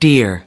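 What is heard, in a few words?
"dear", English word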